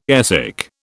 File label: "airsick", British